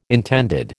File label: "intended", American